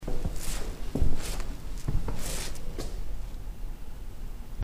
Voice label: "Hey" or "Hi" recorded during a walk around the cell block.; Alcatraz